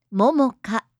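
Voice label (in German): "Momoka", japanische Mädchennamen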